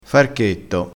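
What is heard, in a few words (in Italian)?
"farchetto", dialettale crespinese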